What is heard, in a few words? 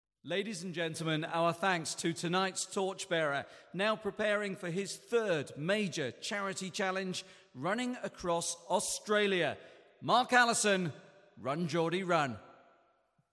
voiceover